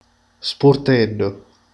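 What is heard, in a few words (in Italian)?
"sportello", Italian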